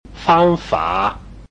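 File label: "fang fa", Chinese, tones 1 and 3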